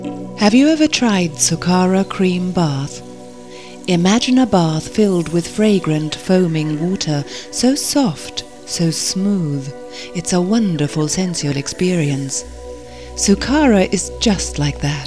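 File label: English UK, General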